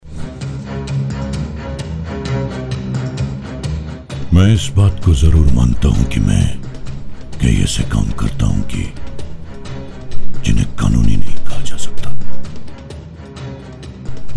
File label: promo hindi